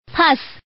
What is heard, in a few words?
Woman buyao; women